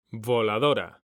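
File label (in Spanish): "voladora", Spanish, male; mekanta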